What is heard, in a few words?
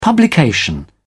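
"publication", British English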